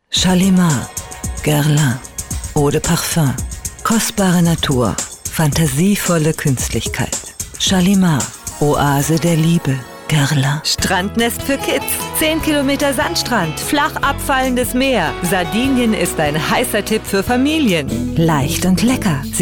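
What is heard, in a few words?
German, female, voice over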